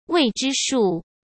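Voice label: "未知数", Mandarin Chinese; chinese flashcards; hsk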